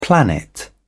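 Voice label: "planet", British English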